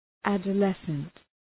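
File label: "adolescent", English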